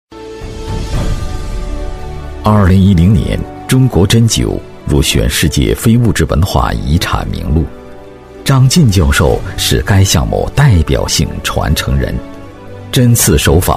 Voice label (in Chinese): 男 非遗专题 大气磁性; 《中国针灸传承人》